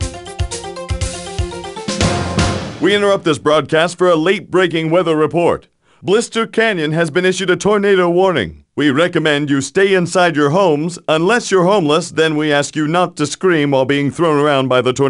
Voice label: News Tornado

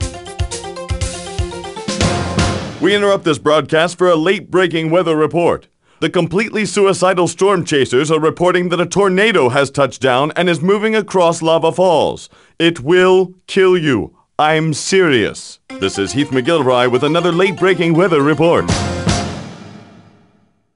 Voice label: News Tornado

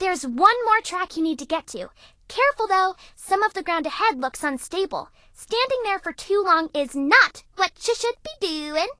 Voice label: English Voice

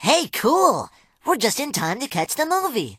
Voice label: English Voice End; Disney; Phineas and Ferb; The Transportinators of Doooom

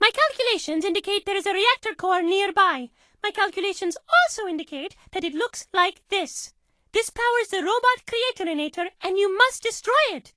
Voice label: English Voice

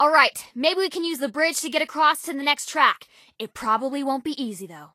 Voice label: English Voice

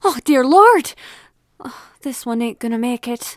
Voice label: nurse female ethnic; Voices; lucius ii the prophecy

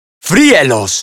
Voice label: Spanish - dialogue, Lazer Gremlin Expressions

